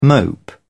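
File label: "mope", British English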